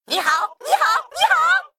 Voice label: Male Mech; zh